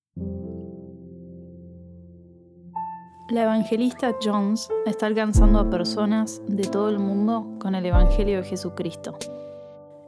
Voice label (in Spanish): gospel Spanish